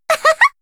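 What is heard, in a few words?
story, Japanese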